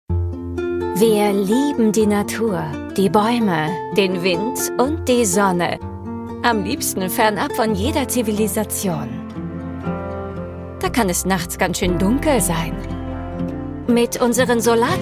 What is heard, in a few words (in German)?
imagefilm der perfekte urlaub